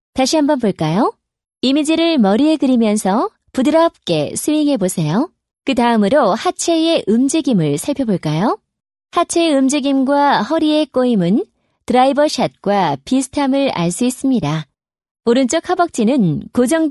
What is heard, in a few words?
Korean Female